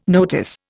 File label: "notice", Indian